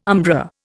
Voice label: "umbra", Indian